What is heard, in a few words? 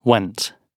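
"went", British English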